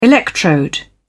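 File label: "electrode", British English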